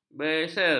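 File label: "beser", Malay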